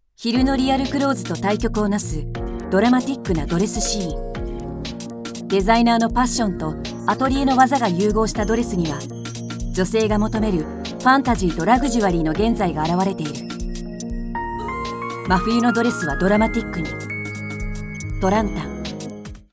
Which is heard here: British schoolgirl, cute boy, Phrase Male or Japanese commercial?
Japanese commercial